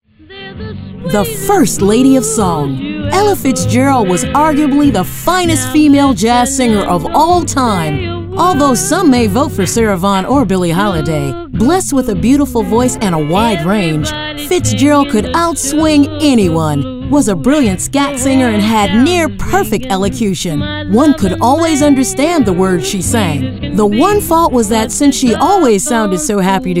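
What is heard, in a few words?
narration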